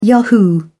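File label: "yahoo", British English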